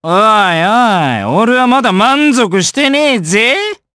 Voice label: Vox Victory, jp; kingsraid

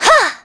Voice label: Vox Attack; kingsraid

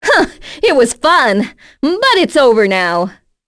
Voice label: Vox Victory, en; Naila; kingsraid; voices heroes en

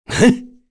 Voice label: Vox, kr; heroes; Dakaris; kingsraid

